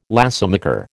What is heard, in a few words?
"lacemaker", American